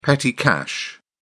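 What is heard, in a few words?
"petty cash", English, British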